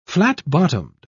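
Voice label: "flat-bottomed", British English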